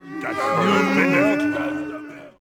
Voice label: men murm